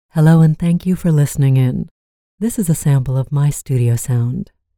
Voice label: Studio Sample

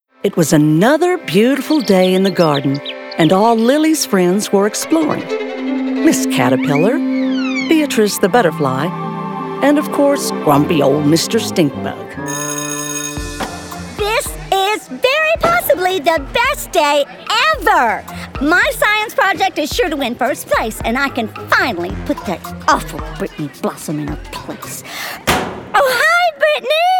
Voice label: Animation

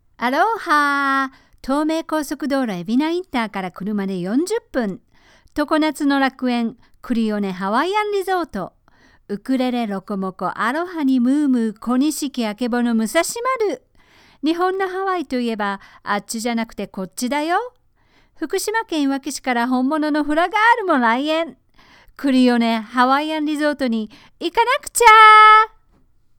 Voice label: Commercial sample

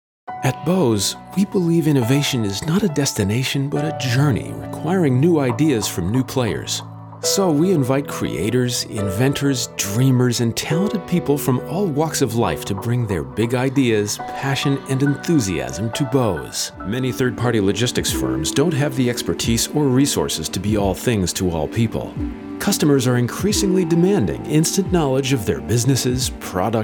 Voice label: Corporate Narration

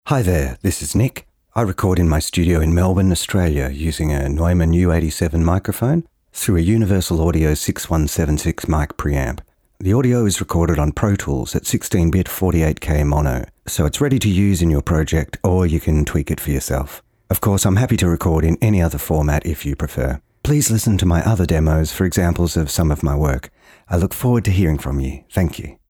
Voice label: STUDIO QUALITY SAMPLE

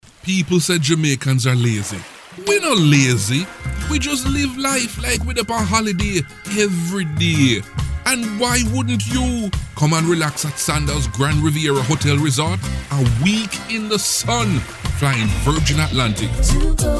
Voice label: commercial Caribbean

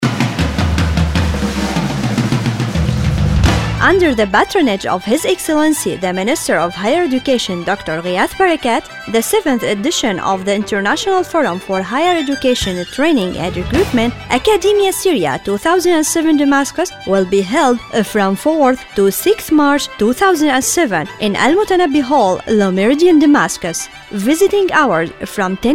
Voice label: English; Akadimia

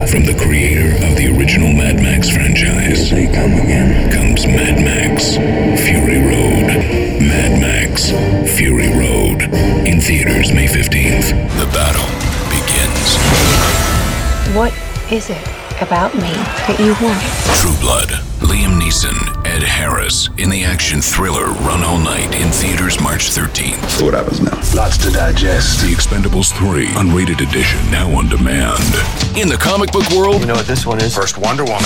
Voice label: Trailer Promo

